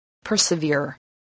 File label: "persevere", voice, English